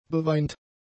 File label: "beweint", German; adjectives